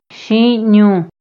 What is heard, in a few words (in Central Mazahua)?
"xiñu̷", Mazahua